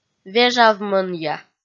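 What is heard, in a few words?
"вежавмӧнъя", Komi, female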